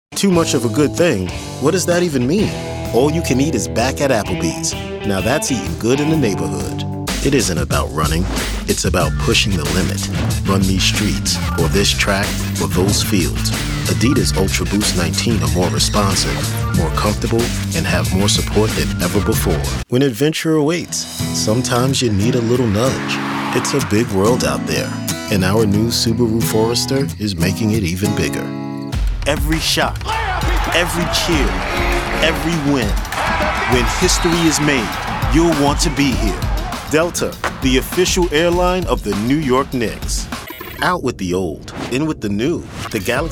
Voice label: Commercial; Male Voice Talent

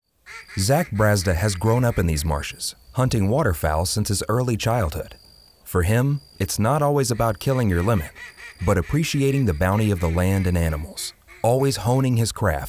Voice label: Narration; Narration Demo; Male Voice Talent

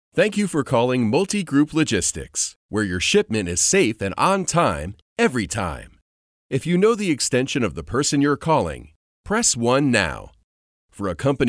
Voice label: On Hold; Male Voice Talent

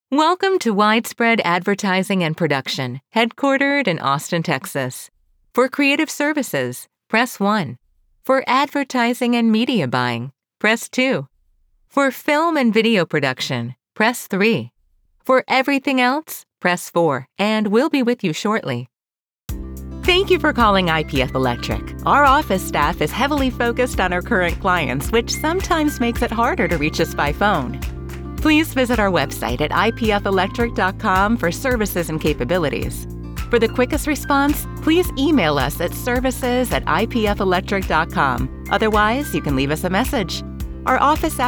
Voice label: IVR; Female Voice Talent; IVR Demo

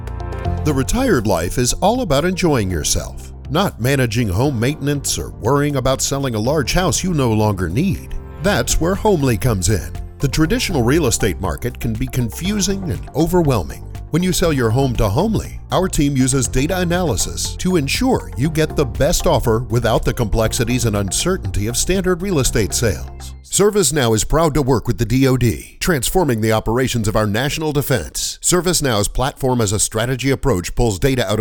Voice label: Commercial; Male Voice Talent; Commercial Demo

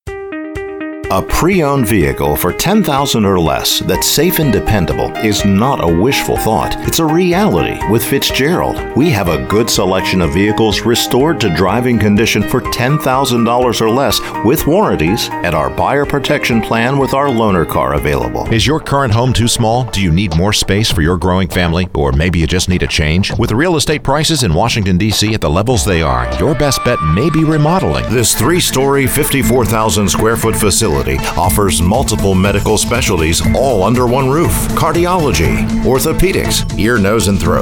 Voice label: commercial; commercial DEMO

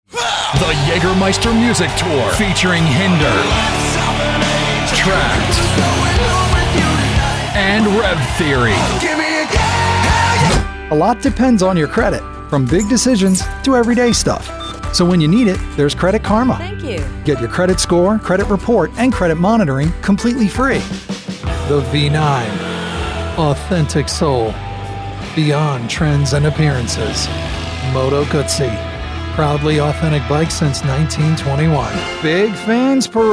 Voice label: COMMERCIAL VO; Male Voice Talent

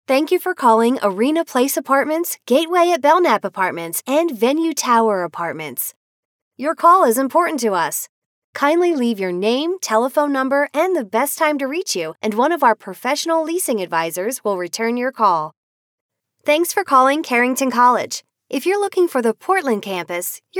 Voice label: On-Hold; Female

